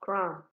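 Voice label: "cram", Nigerian Pidgin, female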